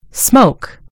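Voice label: "smoke", British English; gcompris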